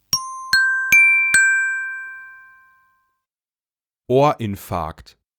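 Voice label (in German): "Ohrinfarkt", German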